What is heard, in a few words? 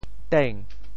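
"teng2", Teochew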